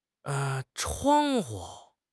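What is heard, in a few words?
"chuang1 hu5", Mandarin Chinese; HSK4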